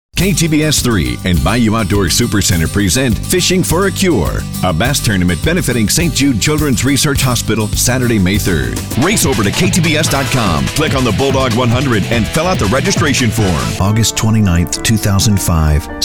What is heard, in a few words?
Imaging; VO Demos